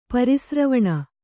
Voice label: "ಪರಿಸ್ರವಣ", Kannada

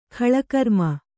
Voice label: "ಕಾಲಕರ್ಮ", Kannada

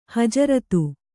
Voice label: "ಹಜರತು", Kannada

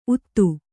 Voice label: "ಊದ", Kannada

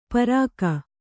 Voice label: "ಪಾರಕ", kannada

